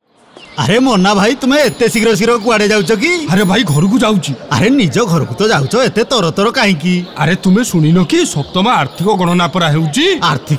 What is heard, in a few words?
Oriya Radio Spot; economic census; seventh economic census